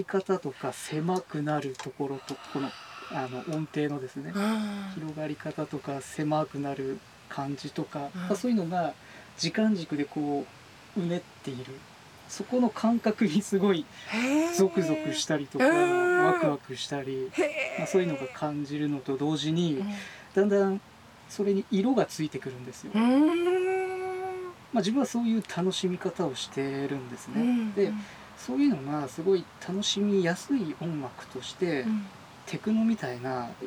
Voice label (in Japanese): ASMR ナレーション入り